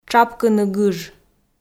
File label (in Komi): "тшапкыны гыж", Komi